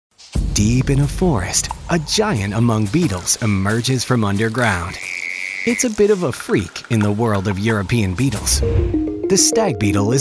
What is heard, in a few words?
In Show Narration